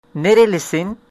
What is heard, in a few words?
where from, turkish